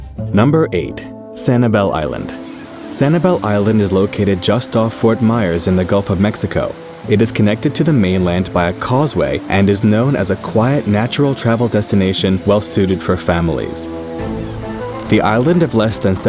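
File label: male voiceover; Sanibel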